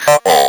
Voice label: "COUPLE", Speak & Spell

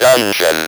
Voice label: "DUNGEON", Speak & Spell

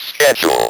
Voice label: "schedule", Speak & Spell

